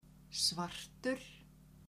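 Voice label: "svartur", Icelandic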